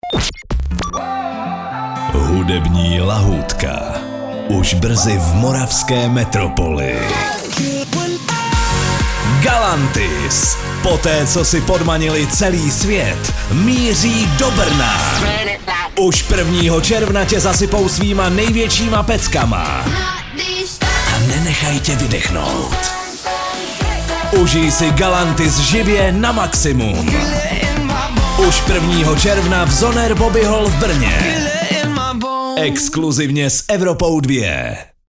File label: promo; evropa2